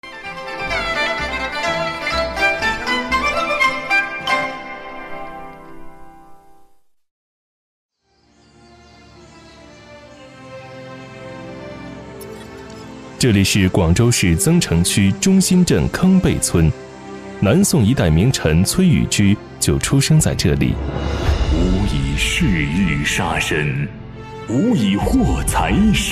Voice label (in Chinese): 男 中文 纪录片样音